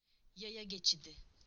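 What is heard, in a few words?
"pedestrian crossing", Turkish; PictureDictionary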